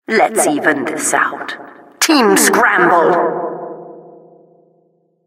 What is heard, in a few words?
announcer team scramble